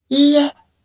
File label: Vox Deny jp; Kara; kings raid